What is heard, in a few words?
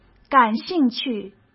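"感兴趣" Mandarin Chinese; chinese flashcards; hsk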